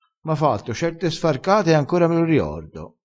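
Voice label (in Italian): "sfarcata", dialettale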